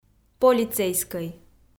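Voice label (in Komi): "полицейскӧй", Komi, female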